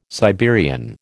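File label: "Siberian", American English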